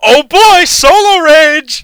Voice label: Rage; tf2; freak fortress 2